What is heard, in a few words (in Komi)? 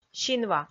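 "синва", Komi, female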